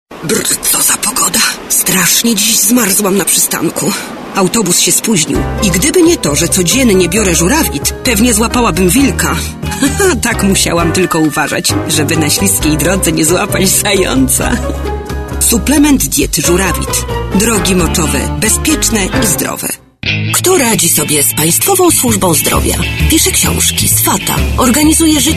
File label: COMMERCIALS